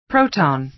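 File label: "proton", American English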